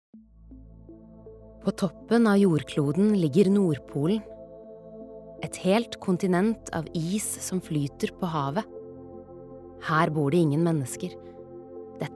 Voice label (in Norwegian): dokumentar, norsk, kvinne